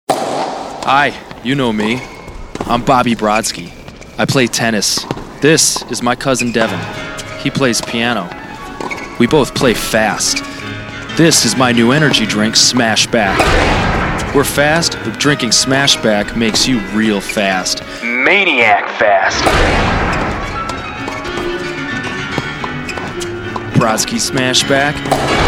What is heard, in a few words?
Commercial